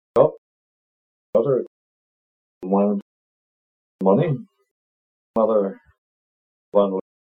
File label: kent strut, accents; denton